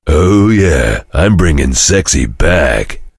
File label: cheer; svencoop; voice commands; Duke